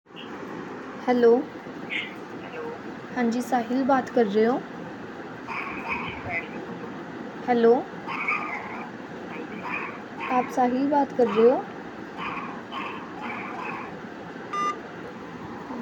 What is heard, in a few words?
call recording